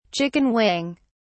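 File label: "chicken wing", British English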